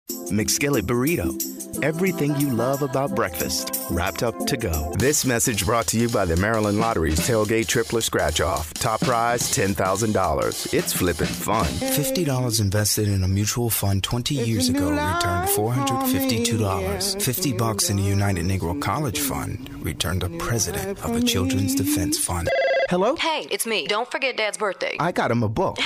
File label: Commercial Male, Urban, Calming, Relateable